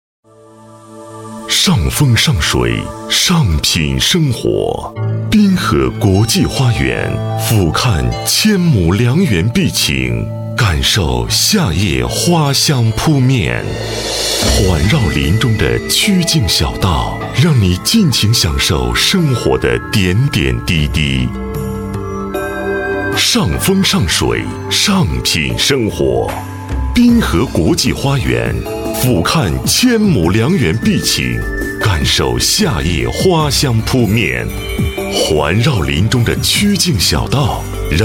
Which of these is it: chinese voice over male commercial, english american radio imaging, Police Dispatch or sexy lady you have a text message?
chinese voice over male commercial